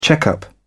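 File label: "check-up", British English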